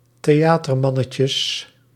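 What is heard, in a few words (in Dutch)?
"theatermannetjes", Dutch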